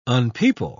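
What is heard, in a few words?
"unpeople", British English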